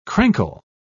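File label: "crankle", English, British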